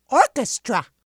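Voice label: "orchestra", English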